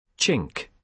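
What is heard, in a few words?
"chink", British English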